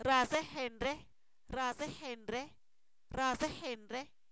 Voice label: Zapoteco, san miguel albarradas; rascamos; verbos; INALI

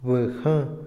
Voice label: "armas", Otomí, hombre; INALI

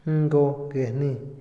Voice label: tampoco, Otomí, hombre; INALI

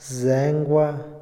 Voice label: "saludar", Otomí, hombre; Habla; INALI

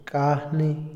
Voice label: "disparar", Otomí, hombre; INALI

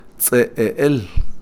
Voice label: quemar, Kakchikel

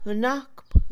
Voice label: Mixe, Mujer; bajar; INALI

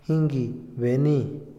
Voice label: "dudar", Otomí, hombre; INALI

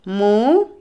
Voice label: calabaza, Otomí, mujer; INALI

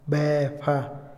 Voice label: atrás, Otomí, hombre; INALI

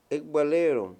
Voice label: "ladrón", Mayo

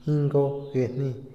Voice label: no negativo, Otomí, hombre; Cognición; INALI

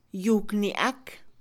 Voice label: piel de animal, Mixe, Mujer; Ropa; INALI

